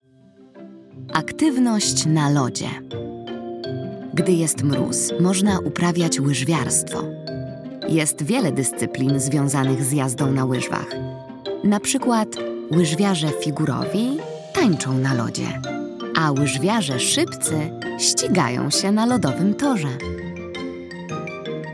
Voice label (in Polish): narracja; czasopismo mis; zima